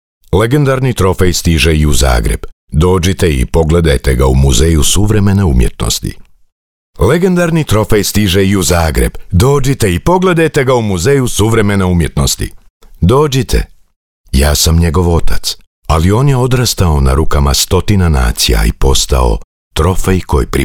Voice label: croatian m narration, voice demos